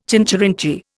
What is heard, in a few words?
"chincherinchee", Indian